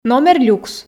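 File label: "номер-люкс", Komi, female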